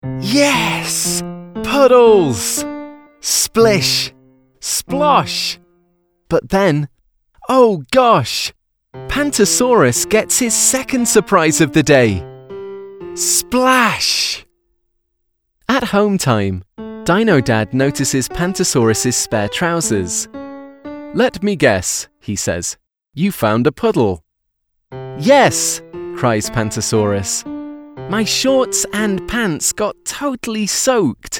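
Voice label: childrens animation, Male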